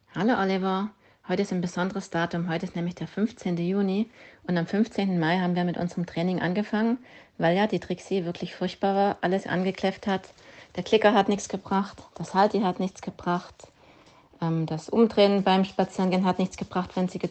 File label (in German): Kundenstimmen